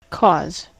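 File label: "cause", US English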